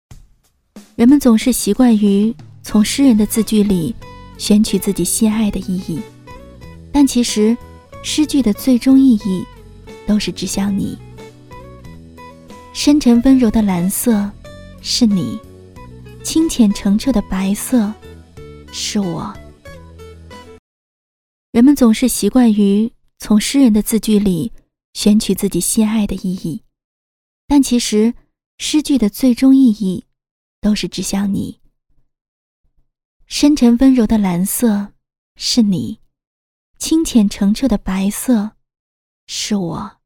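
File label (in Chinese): 旁白配音自述类优雅温暖舒缓; 心爱的意义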